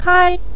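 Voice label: "kai", Mandarin Chinese, neutral tone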